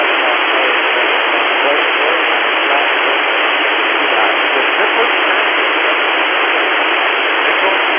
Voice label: Codan analogue voice